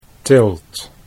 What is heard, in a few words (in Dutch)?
"tilt", Dutch